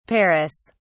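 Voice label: "paras", British English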